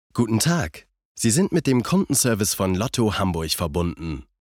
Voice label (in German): Telefonansage